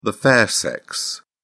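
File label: "fair sex", British English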